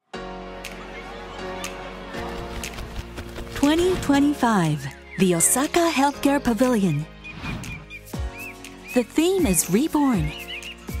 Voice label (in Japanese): ナチュラル、会話的、親しみやすい; Osaka Expo 2025